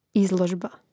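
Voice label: "izložba", Croatian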